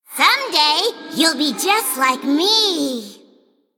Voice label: Female Gnome Play

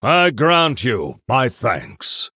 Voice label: Male Human Thanks

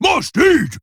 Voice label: Male Trogg Attack

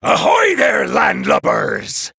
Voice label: Male Human Intro; Captain Shivers

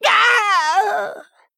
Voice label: Male Goblin Death

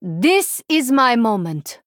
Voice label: Female Draenei Start

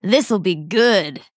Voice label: Female Dryad Attack

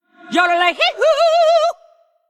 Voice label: Female Blood Elf Undead Attack

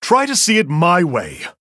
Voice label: Male Human Hero Power

